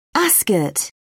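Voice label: "ascot", British English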